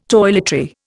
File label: "toiletry", Indian